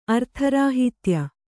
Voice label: "ಅರ್ಥರಾಹಿತ್ಯ", Kannada

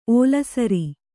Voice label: "ಒಳಸಾರ", kannada

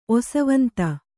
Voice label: "ಒಸವಂತ", Kannada

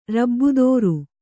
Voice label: "ರಬ್ಬುದೋರು", Kannada

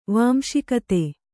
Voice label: "ವಾಂಶಿಕತೆ", Kannada

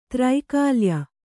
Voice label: "ತ್ರೈಕಾಲ್ಯ", Kannada

